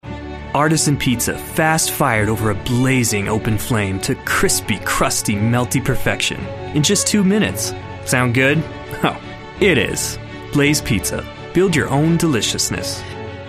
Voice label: Commercial